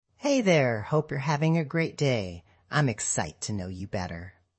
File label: Old American